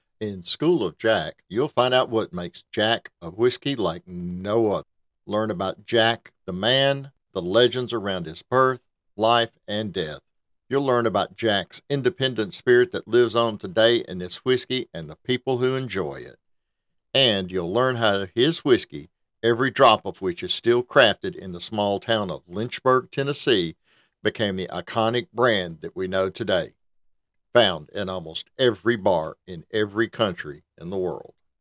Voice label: Trailer Script Voice Sample

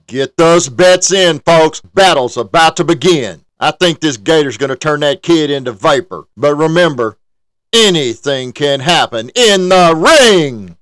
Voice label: Fight Ring Announcer normal; Animated Short (30 Seconds)

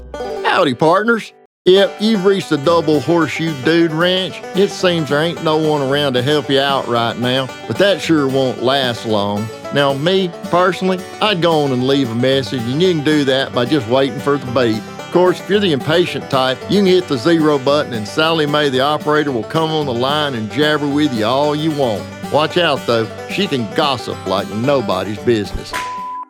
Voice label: IVR; Double Horseshoe Dude Ranch

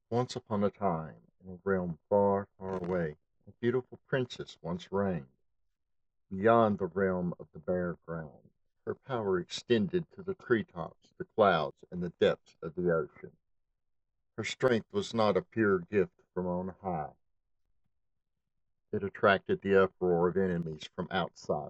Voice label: Narrator; 'Zwei Monde'

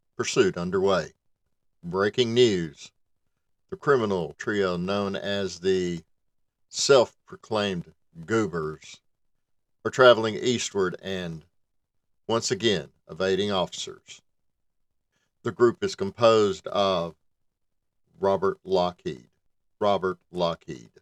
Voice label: News Reporter Voice Sample; 'Neon Sands'; Nevada

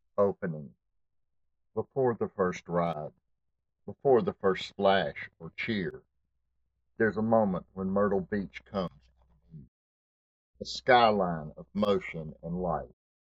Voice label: Voiceover Transitions; VMB Myrtle Moments

